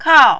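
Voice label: "kao", Mandarin, fourth tone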